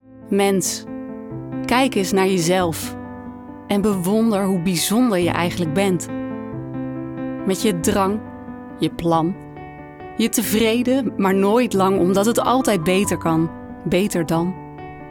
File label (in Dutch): Gevoelig geloofwaardig met muziek Voice over Stemactrice; Mens wat ben je mooi